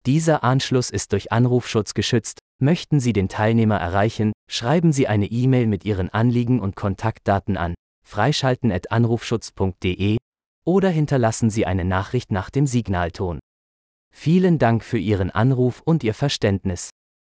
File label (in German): Ansage